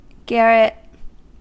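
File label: "garret", American English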